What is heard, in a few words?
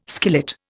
"skillet", Indian